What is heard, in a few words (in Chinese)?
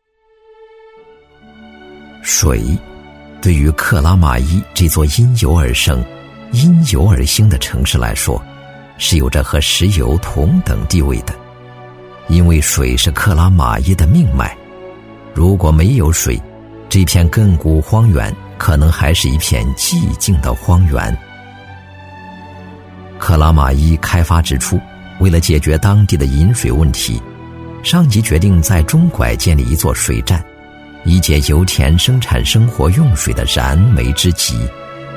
男 宣传旁白 讲解; 男35-历史感强 高端大气; 克拉玛依的饮水